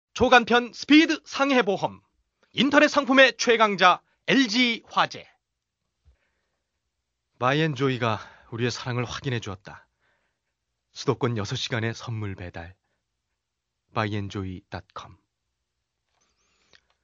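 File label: Korean male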